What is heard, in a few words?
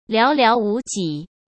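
"寥寥无几", Mandarin Chinese; hsk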